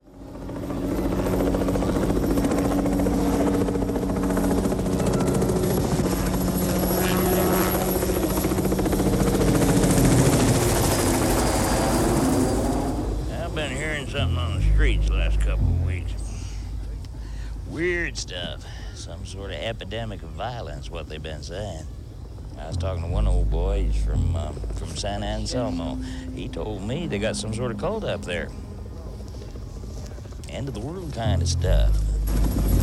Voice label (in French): Discussion sous helico VO